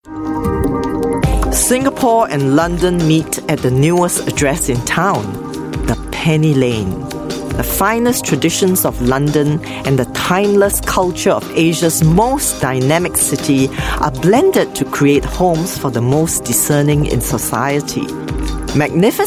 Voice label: Asian English Property

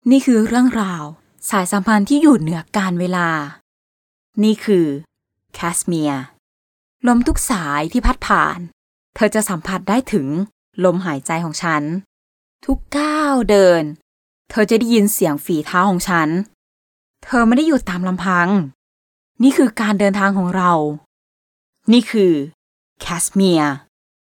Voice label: Thai Female

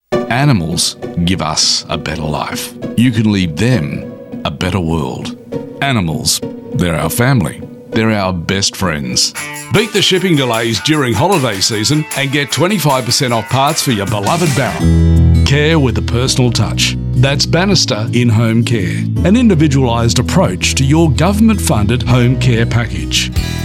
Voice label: AU English

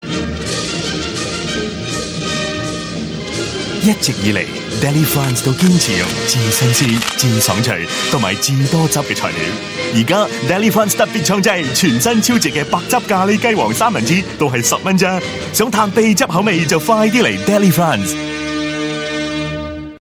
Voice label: Cantonese; Delifrance

